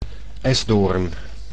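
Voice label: "esdoorn", Dutch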